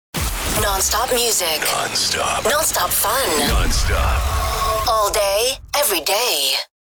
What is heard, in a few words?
Female radio voice dj sweeper non stop fun